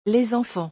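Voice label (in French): "Les enfants", French; vocabulaire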